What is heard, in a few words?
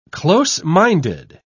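"close-minded", British English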